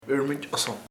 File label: people, chamacoco; gente